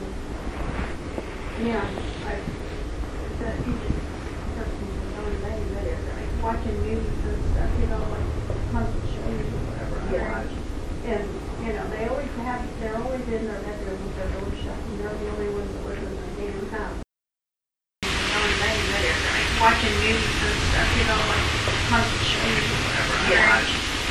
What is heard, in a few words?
extra conversation living room; Oaklane Rd